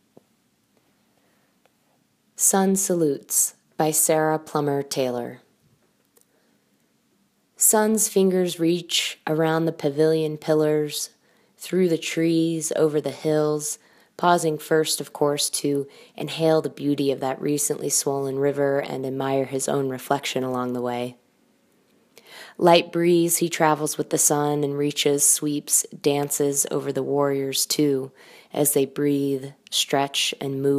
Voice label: poem reading; sun salutes